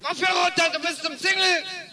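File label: german drop guns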